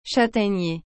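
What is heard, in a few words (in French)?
"châtaignier", French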